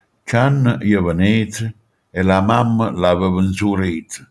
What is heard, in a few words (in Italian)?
"gianni", manfredoniano